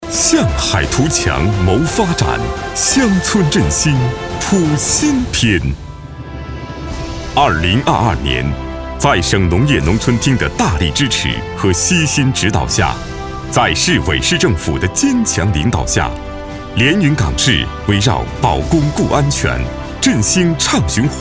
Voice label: 企业宣传大气高昂

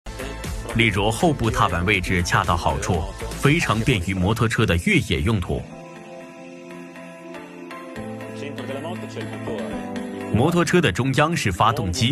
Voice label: 译制类 工程师 汉语讲解; 杜卡迪

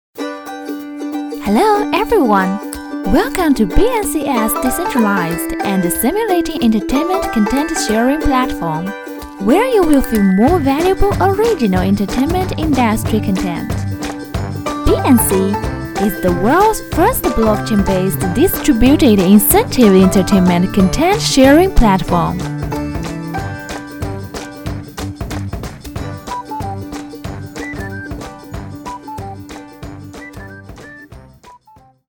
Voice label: 英语 MG 区块链

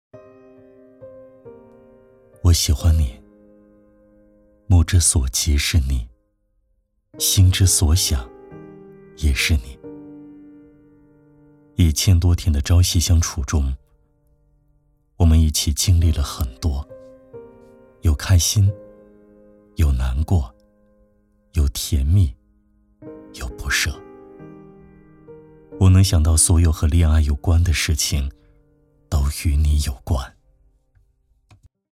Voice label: 电台走心求婚

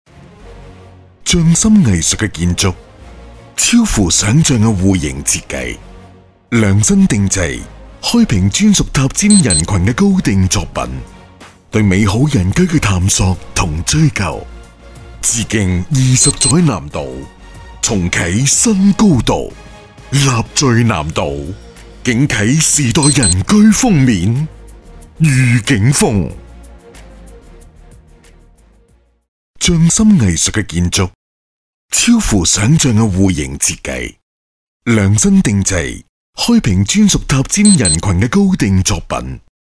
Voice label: 粤语 地产 低沉磁性 男; 地产开发商

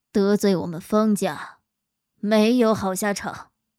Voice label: 御妈霸气

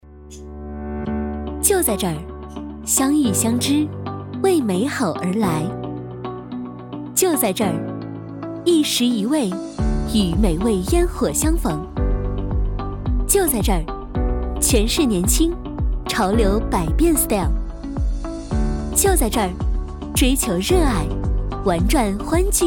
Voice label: 科技宣传

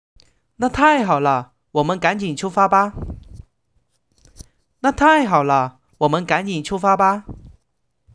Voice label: 采访录音

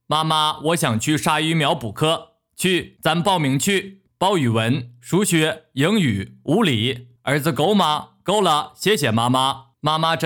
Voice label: 补课班促销 抖音; 烤山药版本

